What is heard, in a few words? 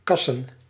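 "kh2sn", Proto-Indo-European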